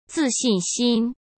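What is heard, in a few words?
"自信心", Mandarin Chinese; hsk; chinese-flashcards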